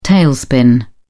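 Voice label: "tailspin", British English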